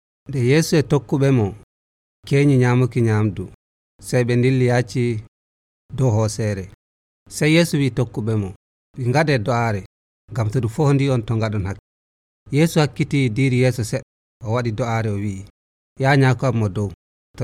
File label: Fulfulde Nigerian The Arrest and Trial of Jesus